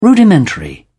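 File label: "rudimentary", English, British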